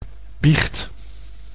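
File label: "biecht", Dutch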